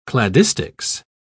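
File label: "cladistics", British English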